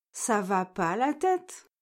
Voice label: "ça va pas la tête", French